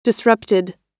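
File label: "disrupted", American English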